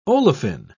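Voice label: "olefine", British English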